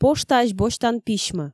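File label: "поштаысь босьтан письмӧ", Komi, female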